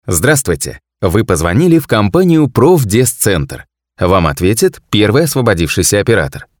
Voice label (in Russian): пример автоответчика